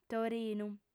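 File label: "torinu", female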